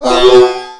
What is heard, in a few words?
Male Die Robot; Mars Sortie